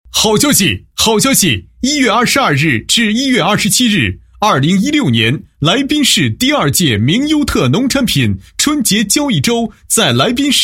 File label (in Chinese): 男 好消息 名特优农产品春节交易周